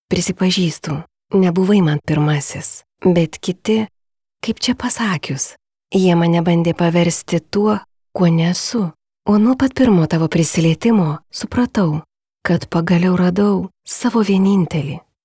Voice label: lithuanian female commercial

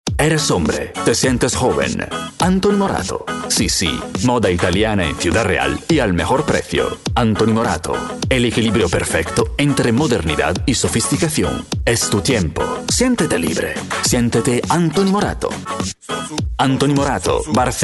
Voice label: italian male commercial

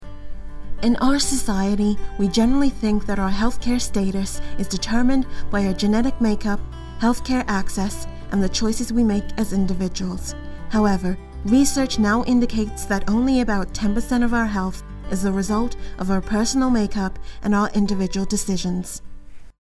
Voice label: english us and canada female narrative

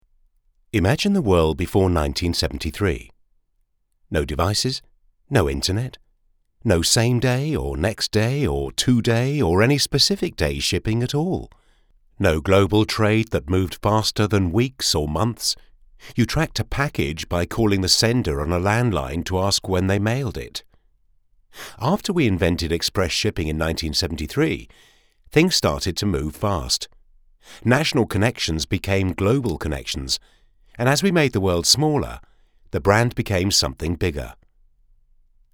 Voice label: english uk male corporate

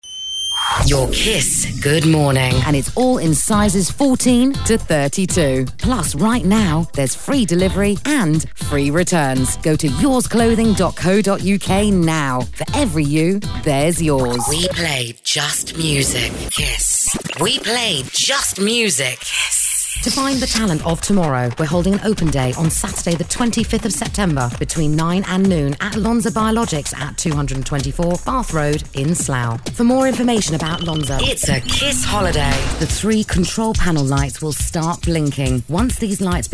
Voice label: english uk female commercial

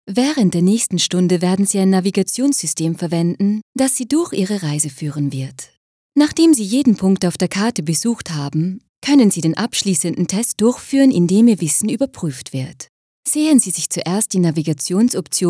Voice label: german female e learning

